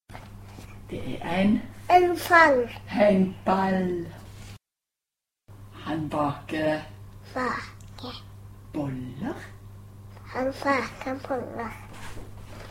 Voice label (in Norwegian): nasalt luftutslipp